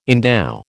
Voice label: "endow", American